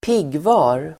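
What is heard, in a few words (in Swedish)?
"piggvar", Swedish; piggvar substantiv, turbot; Uttal: [²p'ig:va:r]; matfisken Bothus maximus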